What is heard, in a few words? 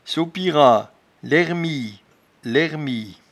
"sopira", patois jura